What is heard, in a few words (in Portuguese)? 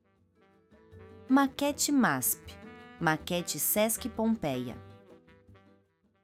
narracao; maquetes adesivo de chao